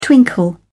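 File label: "twinkle", English, British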